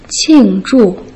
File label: "qing zhu", Putonghua